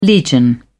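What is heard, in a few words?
"legion", British English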